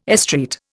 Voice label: "estreat", Indian